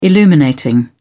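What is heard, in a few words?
"illuminating", British English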